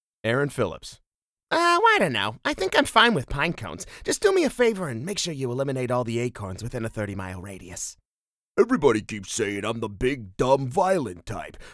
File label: animation male video game